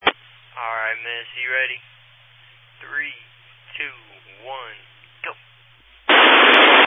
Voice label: Family Radio Service (FRS)